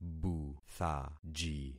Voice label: "buthaji", concat syllables; Affixing experiment